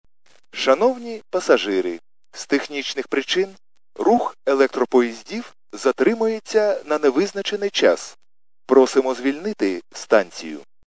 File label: khs st male, subway station